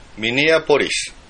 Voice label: "Minneapolis", airport code; usa